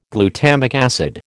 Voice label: "glutamic acid", American